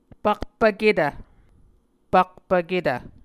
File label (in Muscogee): pakpvketv, muscogee